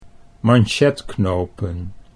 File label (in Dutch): "manchetknopen", Dutch; sieraden